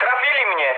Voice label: aircraft destroyed mood med, speech pol

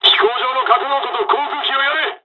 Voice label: dialogs japanese; hon jpn second wave; War Thunder

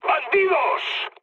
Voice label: enemy detected, mood med, speech; War Thunder

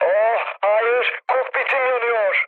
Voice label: on fire, mood med, speech tur; War Thunder

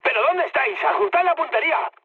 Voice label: player friendly fire, mood med, speech

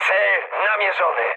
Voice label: near waypoint mood high, speech pol; War Thunder

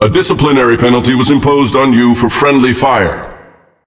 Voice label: Speech Friendly Fire Punishment, EN; World Of Warships

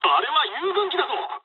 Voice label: player friendly fire mood med, speech jp; War Thunder

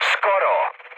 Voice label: bomb failure, speech cze, mood high; War Thunder

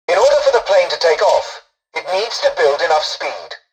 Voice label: tutorial dialogue, english; War Thunder

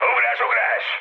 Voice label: bailout, speech hun; War Thunder

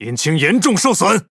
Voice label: Speech Defective Modules Engine Damaged, ZH CN; World Of Warships

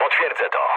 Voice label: player killed enemy mood low, speech pol

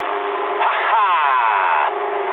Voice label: eng trick mood high, speech eng; War Thunder

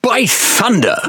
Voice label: Pirate Speech Quick Commands Caramba; World Of Warships

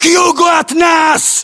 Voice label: direction relative south, ru; localized english

